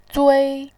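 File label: "zui" Mandarin Chinese, first tone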